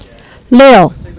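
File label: "six", Chinese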